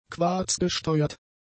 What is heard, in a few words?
"quarzgesteuert", German; adjectives